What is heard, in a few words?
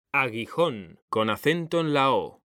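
"aguijón", Spanish, male; mekanta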